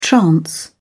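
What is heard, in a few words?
"trance", British English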